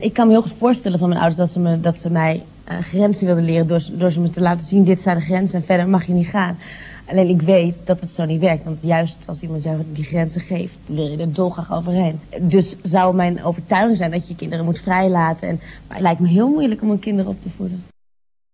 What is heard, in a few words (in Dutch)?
Poldernederlands; geboren in 1975 te Bunnik (U); opleiding atheneum Utrecht; soapster, zangeres; Katja Schuurman